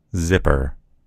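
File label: "zipper", American English; gcompris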